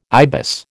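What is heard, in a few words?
"ibis", American English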